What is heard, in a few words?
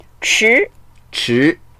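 "chi2", Chinese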